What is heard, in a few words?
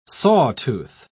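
"sawtooth", English, British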